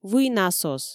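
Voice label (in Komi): "вый насос", Komi, female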